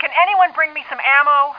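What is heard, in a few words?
female need ammo; Tribes Demo; Starsiege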